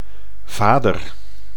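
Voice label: "vader", Dutch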